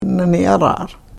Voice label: "nani araara", karuk